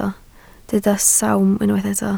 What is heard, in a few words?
"sawm", Welsh